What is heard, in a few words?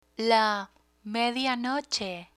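"medianoche", Spanish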